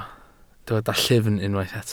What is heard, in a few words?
"llyfn", Welsh